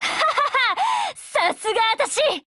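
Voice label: WIN Japanese, Voice; GF Alarm; INSAS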